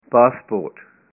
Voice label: "passport", punjabi